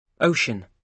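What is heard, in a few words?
"ocean", British English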